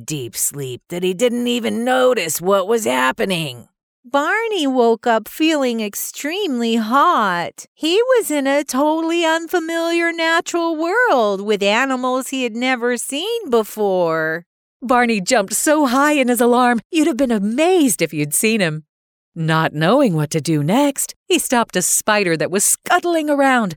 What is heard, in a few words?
Children's Narration Characters